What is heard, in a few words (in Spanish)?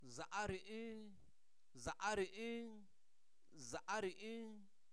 está agachado, Zapoteco, santo domingo alarradas; INALI; Zapoteco Albarradas; verbos